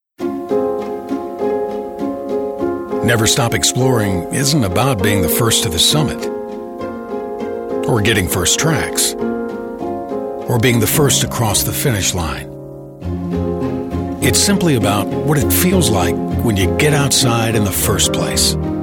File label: Commercial